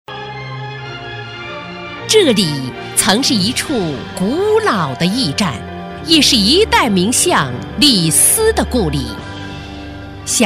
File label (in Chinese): 女 专题配音 革命根据地 激昂大气; 正气稳重; 沉稳大气